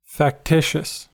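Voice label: "factitious", American English; pronunciations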